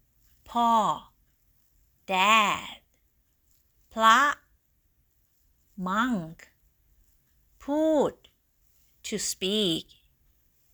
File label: Learning Thai words